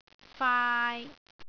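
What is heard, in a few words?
"faai3", Cantonese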